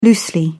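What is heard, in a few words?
"loosely", British English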